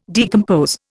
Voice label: "decompose", Indian